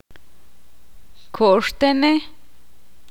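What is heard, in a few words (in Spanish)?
hace mucho tiempo, Spanish, Ixil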